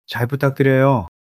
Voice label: fluster